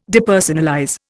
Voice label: "Depersonalize", Indian